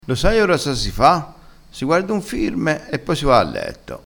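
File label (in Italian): "firme", vocabolario dialettale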